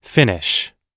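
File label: "finish", English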